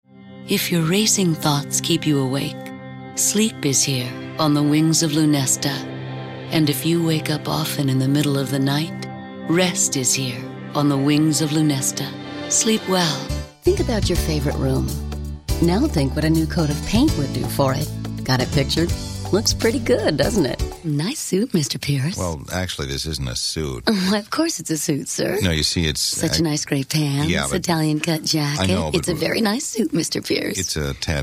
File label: commercial